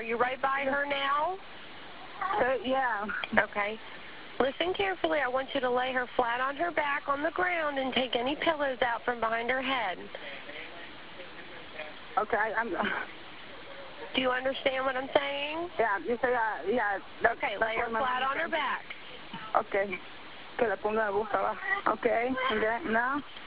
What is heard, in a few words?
911 call baby not breathing